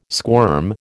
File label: "squirm", American